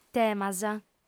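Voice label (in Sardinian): "temas", female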